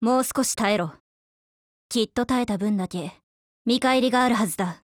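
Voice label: LOADING, Japanese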